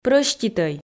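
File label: "прӧститӧй" Komi, female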